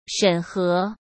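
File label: "审核" Mandarin Chinese; chinese-flashcards; hsk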